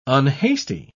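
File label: "unhasty", British English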